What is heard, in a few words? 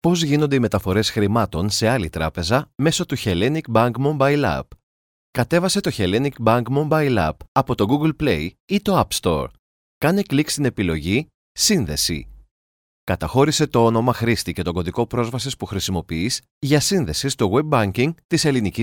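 Greek, presentation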